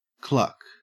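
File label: "cluck", Australian English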